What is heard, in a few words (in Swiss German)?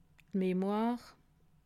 "memoire", alsace